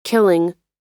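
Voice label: "killing", US English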